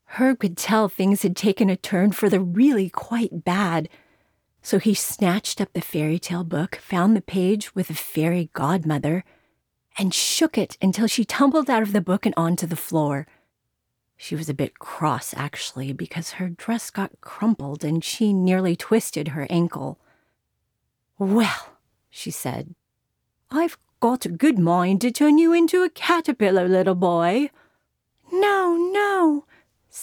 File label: children's, audiobook narration